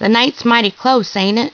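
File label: female question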